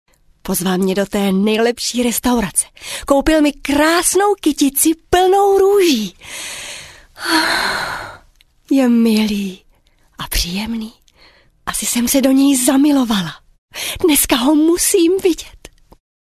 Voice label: locutora checa; Female Czech Voice over

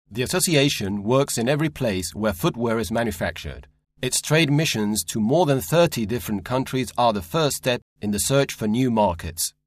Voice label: locutor ingles

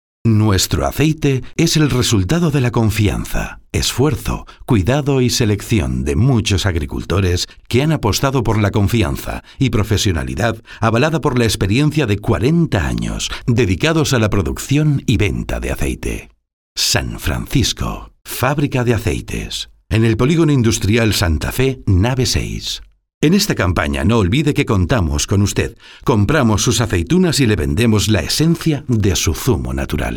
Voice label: locutor castellano

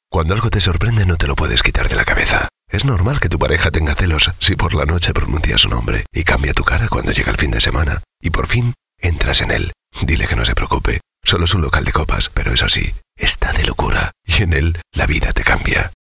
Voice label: locutor doblaje

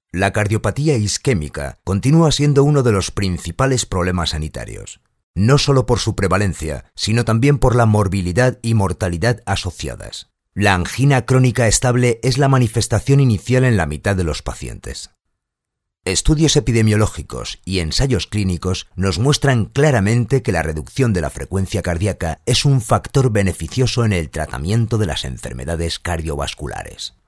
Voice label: locucion presentaciones medicina; Locución para páginas web de medicina

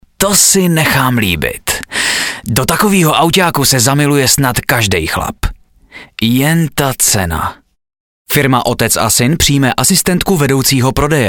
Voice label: locutor checo, Male Czech voiceover

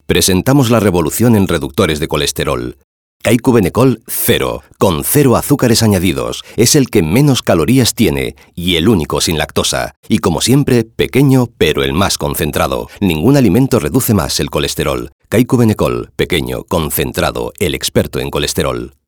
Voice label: locutor spain; locutor de la serie "Madrid desde el aire". y "España entre el cielo y la tierra". Voz de los spots de Kaiku

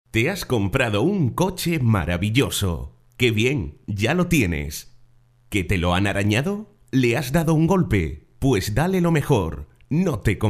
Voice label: locutor

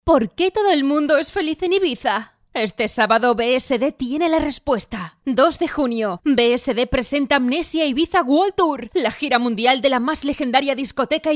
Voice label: locutora spain

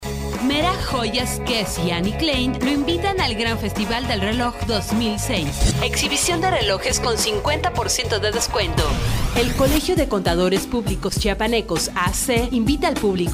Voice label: locutora mexicana mejicana

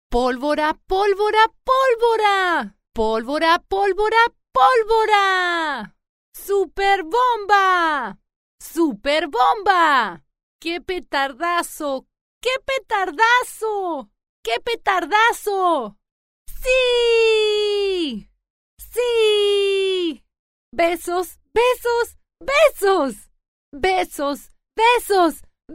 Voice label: locutora argentina argentinian voiceover

